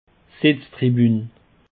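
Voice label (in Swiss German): "setztribun", Alsatian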